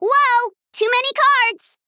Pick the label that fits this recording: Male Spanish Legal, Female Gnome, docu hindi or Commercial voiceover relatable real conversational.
Female Gnome